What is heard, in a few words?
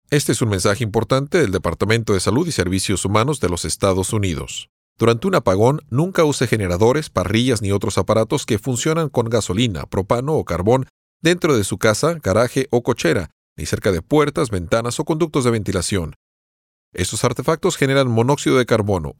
psa; disaster staying safe co